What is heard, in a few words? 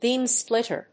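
"Beam Splitter", English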